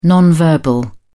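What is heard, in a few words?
"non-verbal", British English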